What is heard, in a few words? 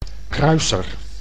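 "kruiser", Dutch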